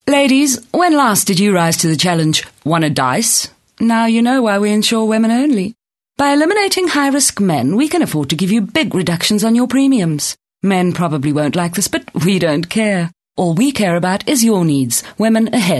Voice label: English Hard Sell